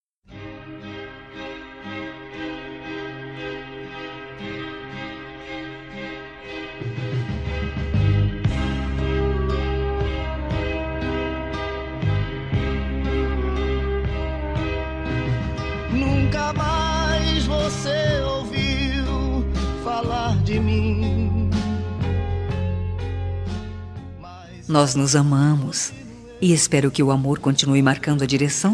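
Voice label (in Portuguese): ROMANTICA female, telemensagem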